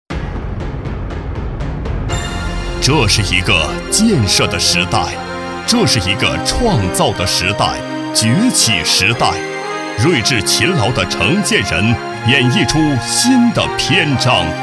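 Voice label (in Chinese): 男国大音-企业（恢弘大气）; 魅力余杭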